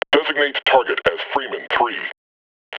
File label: combine alert, combine soldier vo